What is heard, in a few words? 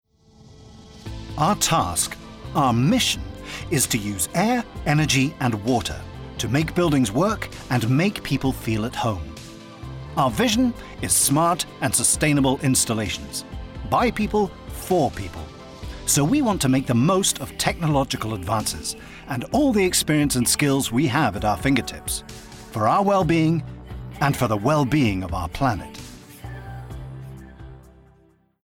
Corporate RP